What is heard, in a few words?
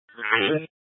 Phx PD Dispatch, scanner; C- Chandler